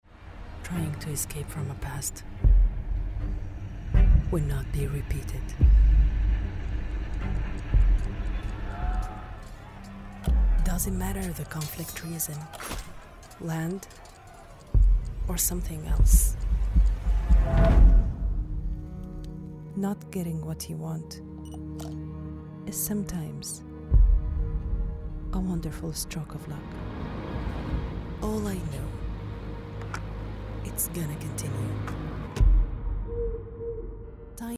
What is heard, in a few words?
english commercial